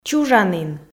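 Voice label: "чужанін", Komi, female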